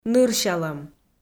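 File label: "нырсялӧм", Komi, female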